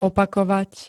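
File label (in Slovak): "opakovať", Slovak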